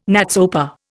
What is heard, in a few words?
"NATSOPA", Indian